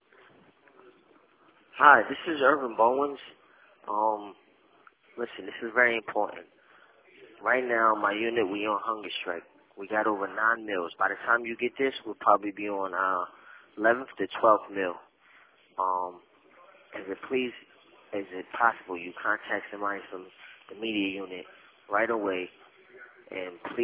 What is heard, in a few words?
voicemail